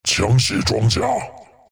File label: item, zh; Dota 2